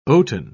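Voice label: "oaten", English, British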